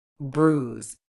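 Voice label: "bruise", British English; bruːz; brooz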